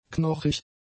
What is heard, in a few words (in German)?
"knochig", German; adjectives